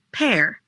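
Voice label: "Pear", English; fruits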